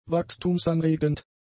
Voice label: "wachstumsanregend", German; adjectives